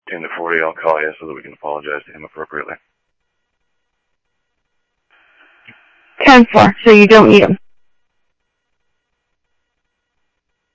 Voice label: Scottsdale Police, scanner